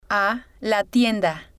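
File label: "a la tienda", spanish, female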